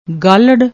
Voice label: "gaahlarr", Punjabi; ਗਾਲ੍ਹੜ